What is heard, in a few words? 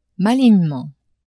"malignement", French; adverbs